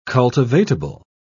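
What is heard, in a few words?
"cultivatable", British English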